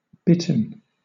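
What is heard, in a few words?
"bitten", British English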